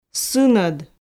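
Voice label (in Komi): "сынӧд", Komi, female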